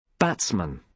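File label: "batsman", British English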